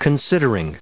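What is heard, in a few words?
"considering", US English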